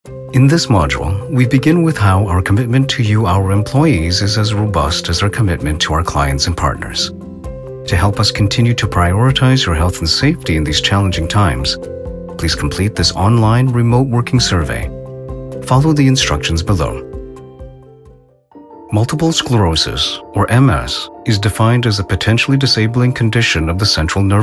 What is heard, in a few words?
eLearning